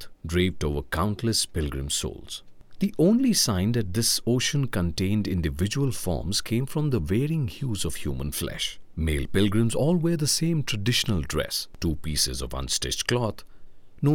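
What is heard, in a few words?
audiobook, English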